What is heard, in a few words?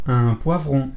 "poivron", French